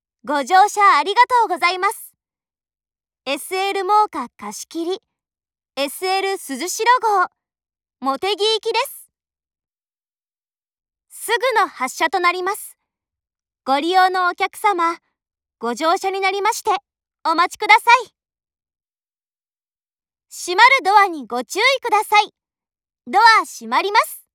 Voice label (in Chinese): 七井站發車前 廣播, 鐵路社内放送; 萬岡鐵路社内放送